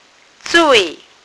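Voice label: "zui4", Mandarin Chinese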